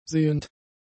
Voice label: "sehend", German; adjectives